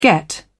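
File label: "get off", British English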